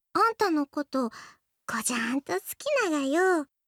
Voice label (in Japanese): 好きです 高知; 春香